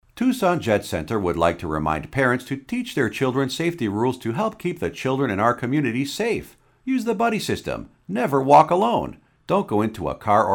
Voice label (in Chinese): 外籍英男 接机服务公司宣传